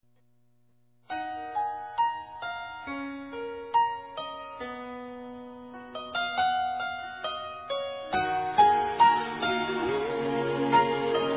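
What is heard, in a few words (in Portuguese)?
Casamento Esposa Masculino; Aniversários; ANIVERSARIO romantico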